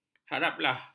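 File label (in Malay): "haraplah", Malay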